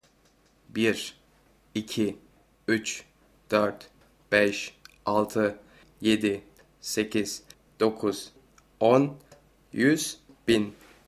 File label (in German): Tuerkisch